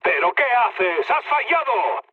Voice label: bomb failure mood med; War Thunder